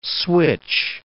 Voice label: "switch", English word

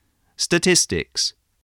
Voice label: "statistics", voice

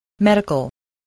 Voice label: "medical", spelling voice

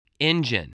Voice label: "engine", spelling

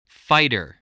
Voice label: "fighter", spelling

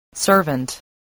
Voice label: "servant", spelling

